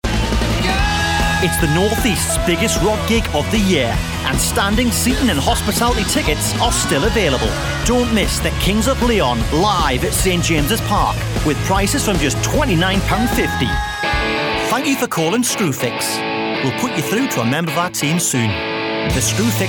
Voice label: Commercial Voice Over